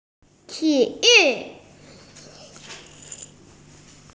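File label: "ti3yu4", Mandarin Chinese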